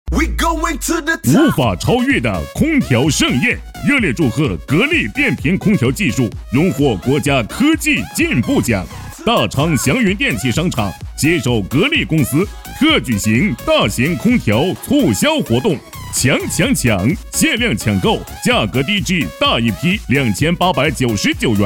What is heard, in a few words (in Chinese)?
男 家电促销